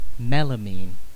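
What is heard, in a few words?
"melamine", British English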